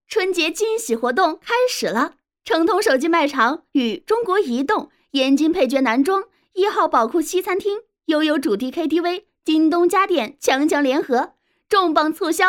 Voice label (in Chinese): 小广告